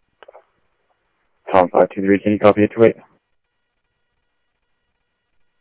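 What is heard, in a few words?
Sheriff Lake Patrol, scanner audio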